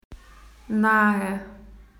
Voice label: near, spoken AU; PictureDictionary

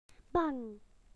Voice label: "scared", BE; PictureDictionary

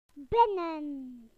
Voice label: "inside", BE; PictureDictionary

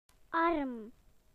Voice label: "arm", BE; PictureDictionary

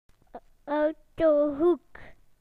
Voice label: "car", BE; car corner; PictureDictionary

